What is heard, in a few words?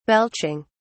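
"belching", British English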